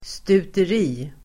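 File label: "stuteri", Swedish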